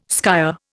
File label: "skyer", Indian